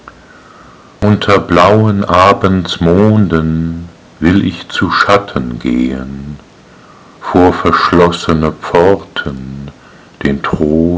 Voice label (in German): Die weibliche Stimme